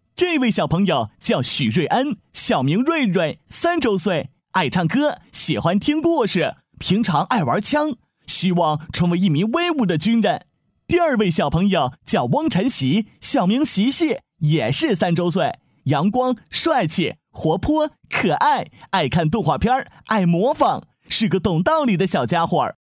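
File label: Mandarin Chinese, male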